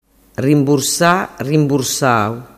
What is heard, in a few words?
"rimbursasau", dialettu